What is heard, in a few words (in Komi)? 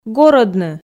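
"горӧдны", Komi, female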